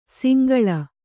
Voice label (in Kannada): "ಸಂಕುಲ", Kannada